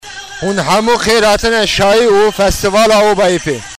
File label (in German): kurdisch